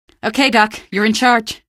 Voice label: squad train, female; npc; Garry's Mod; hl2

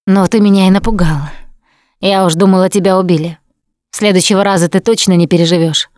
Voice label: revive critical friend, Russian; Producer

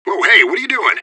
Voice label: radio bot under fire friendly; fbihrt

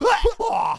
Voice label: Asian Ship Vox; Warlords; Units

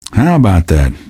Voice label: answer, male; Garry's Mod; hl2

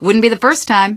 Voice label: answer, female; npc; Garry's Mod; hl2

